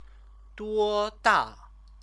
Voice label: "duoda", Chinese; 多 大; how old; question word

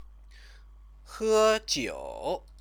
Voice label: "hejiu", Chinese; 喝 酒; drink (alcohol); verb-object

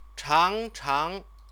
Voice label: "changchang", Chinese

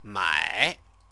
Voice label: "mai", Chinese

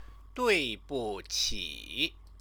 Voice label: "duibuqi", Chinese; 对 不 起; sorry, excuse me; idiomatic expression

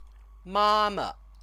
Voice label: "mama", Chinese; 妈 妈; mother; noun

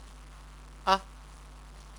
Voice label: "a", Chinese

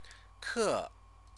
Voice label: "ke", Chinese

